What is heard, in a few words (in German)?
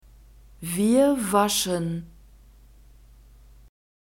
"wir waschen", German; verbs present tense irregular